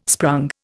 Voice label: "sprung", Indian

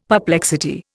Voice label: "perplexity", Indian; Dictionary

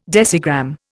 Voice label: "decigram", Indian

